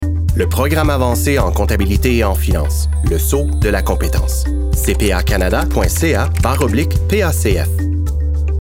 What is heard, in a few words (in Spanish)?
Masculino